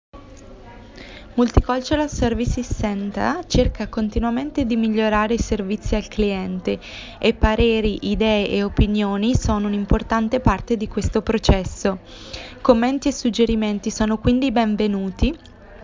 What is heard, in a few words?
Feedback Italian